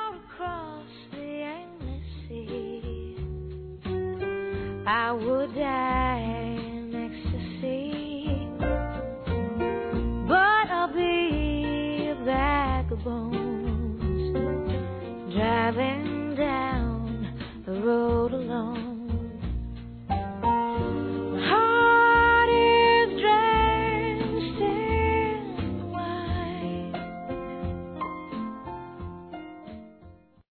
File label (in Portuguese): Chama do Amor Feminino; Telemensagens